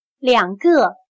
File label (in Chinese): "两个", chino